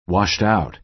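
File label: "washed-out", American English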